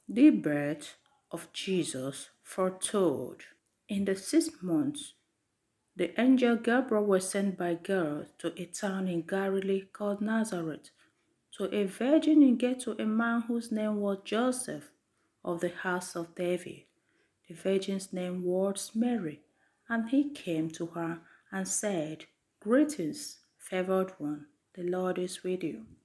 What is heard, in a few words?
Carol Service Reading